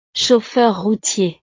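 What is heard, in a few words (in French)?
"chauffeur routier", French; profesiones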